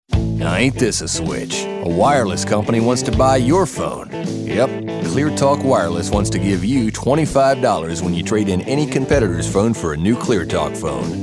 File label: folksy